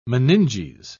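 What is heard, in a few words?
"meninges", British English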